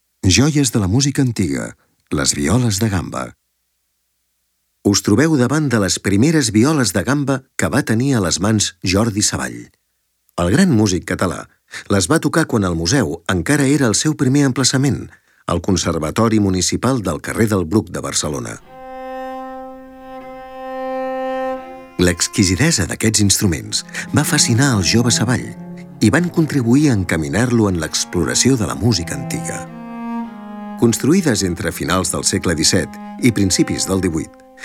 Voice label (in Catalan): audioguia catala; delamusica